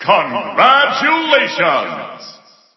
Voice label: narration menu